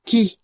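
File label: "qui", French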